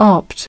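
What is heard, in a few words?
"opt", English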